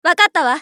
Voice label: 女 移動; マスケッティア

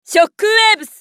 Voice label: 女･ショックウェーブ; ウォーロック

